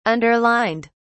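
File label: "underlined", English, British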